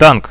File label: tank, Russian, radio